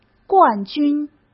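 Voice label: "冠军", Mandarin Chinese; chinese flashcards; hsk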